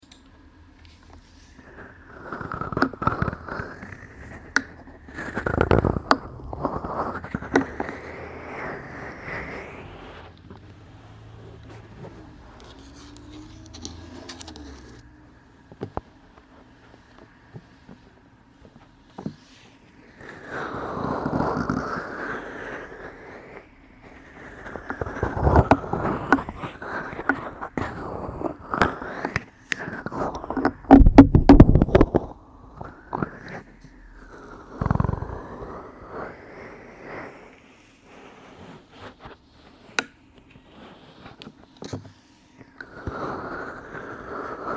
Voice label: asmr stream 3dio